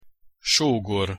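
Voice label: "sogor", Hungarian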